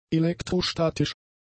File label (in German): "elektrostatisch", german; adjectives